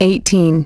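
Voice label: "eighteen", English; iOS 4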